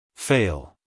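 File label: "fail", American English, male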